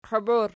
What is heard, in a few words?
"qwiib", Archi; qiwib; pl